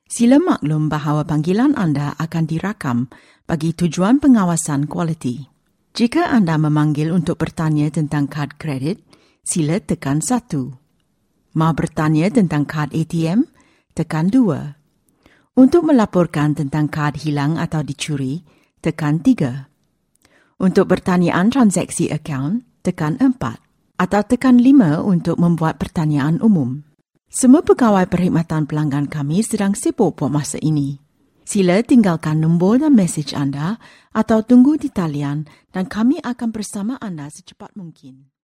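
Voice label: female, narration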